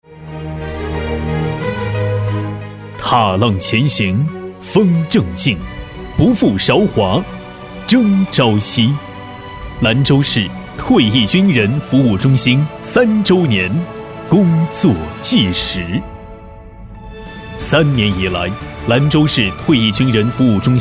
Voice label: 男 专题 汇报片 退役军人服务

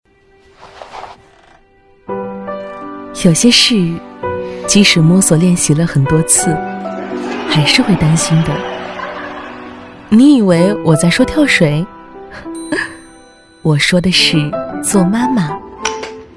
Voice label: 女 TVC广告 亲切讲述

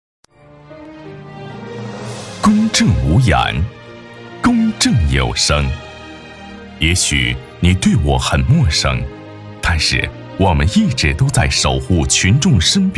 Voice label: 男 专题片 法院; 平泉法院

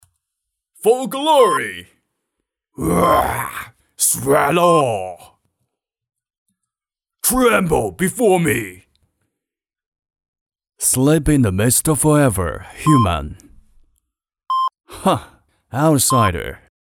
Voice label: 男 英语 游戏角色 夸张男声

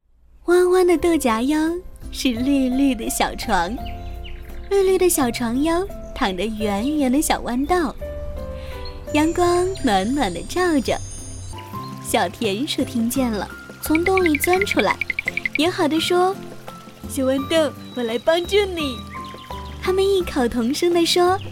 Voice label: 女 绘本 多声线演绎

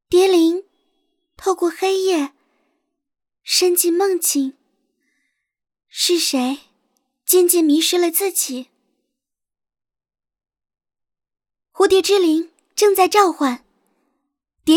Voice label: 女, 游戏角色; 通灵紫蝶

